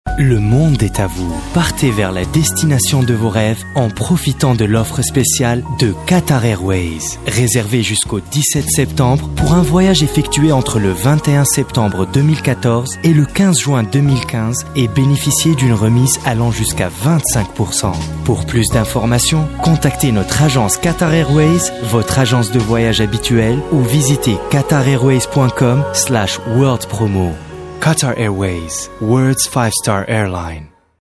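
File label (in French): Tunisian French, male, commercial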